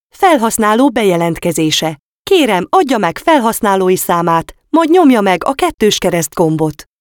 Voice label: hungarian Agent Login

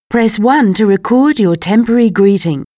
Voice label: australian english Record Temporary Greeting

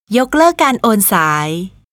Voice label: Call Forward Cancelled, thai

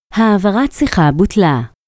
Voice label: hebrew Call Forward Cancelled

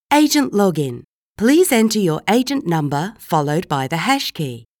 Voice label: australian english Agent Login

